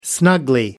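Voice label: "snugly", British English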